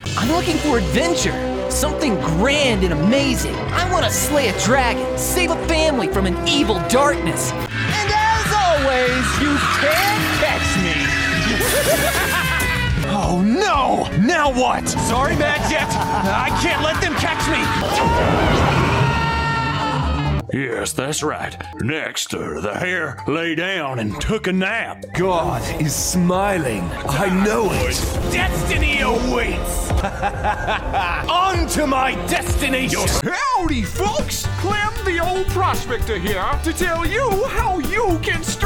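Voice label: Character, Australian English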